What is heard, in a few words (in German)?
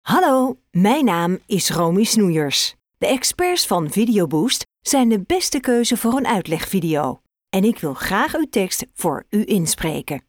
hollaendisch; internationale voiceover